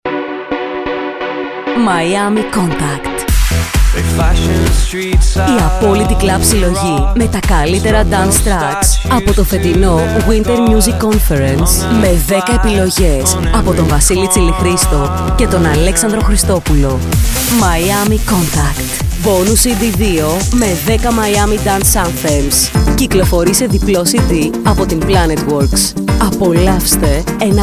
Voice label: Radio Spot; MIAMI CONTACT 2010